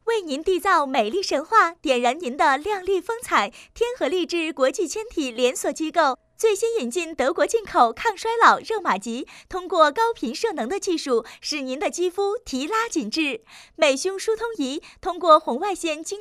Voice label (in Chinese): 促销 女 激情; 天和丽治